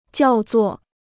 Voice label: "叫作", Chinese

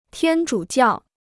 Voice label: "天主教", Chinese

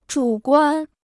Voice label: "主观", Chinese

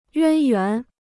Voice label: "渊源", Chinese

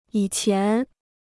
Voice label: "以前", Chinese

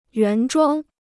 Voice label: "原装", Chinese

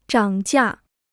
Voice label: "涨价", Chinese

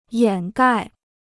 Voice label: "掩盖", Chinese

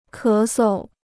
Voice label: "咳嗽", Chinese

